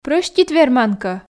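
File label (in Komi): прӧстит верман кӧ, Komi, female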